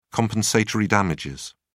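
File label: "compensatory damages", British English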